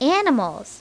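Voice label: "animal", English; My Talking Dictionary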